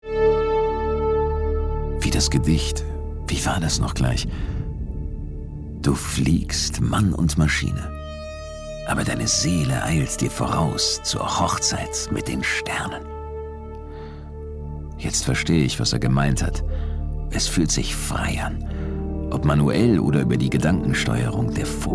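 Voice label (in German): Hörspiel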